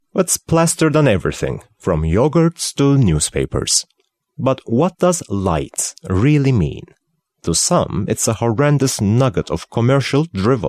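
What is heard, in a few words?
Turkish English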